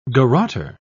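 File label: "garrotter", British English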